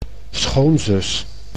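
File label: "schoonzus", Dutch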